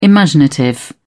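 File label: "imaginative", British English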